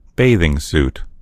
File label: "bathing suit", en US; gcompris